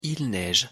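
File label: "il neige", French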